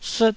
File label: "sut4", taiwanese Syllables